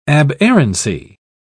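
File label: "aberrancy", British English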